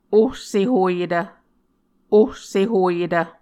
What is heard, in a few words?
"ohsehoyetv", Muscogee